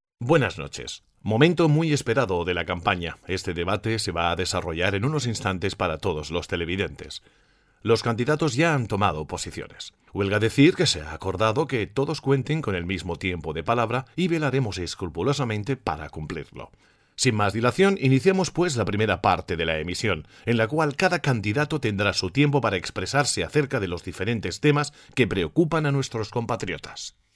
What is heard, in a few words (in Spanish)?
Dubbing para TV speaker